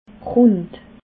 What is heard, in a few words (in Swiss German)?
"rund", alsace